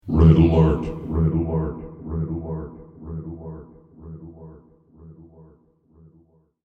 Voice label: Red Alert Deep Android Male Voice With Echo

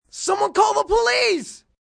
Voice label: Call Police, Calls, distress, help, male; Exclamation Sound Effects

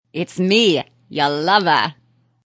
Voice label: Woman Says Its Me Your Lova, Woman talking; Talking Sound Effects; Talking (Sound Effects:Voice)

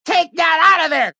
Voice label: Take That Outta, Male; Sex; VoiceBox

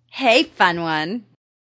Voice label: Woman Says Hey Fun One; Woman talking; Sound Effects:Voice

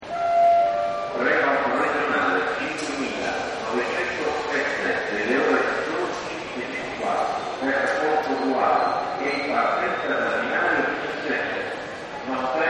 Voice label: Train Station Announce, Pa Announcements; Voice; Partners In Rhyme

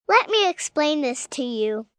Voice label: Let Me Explain, Adult Phrase, 1 girl 5 yrs; Talking

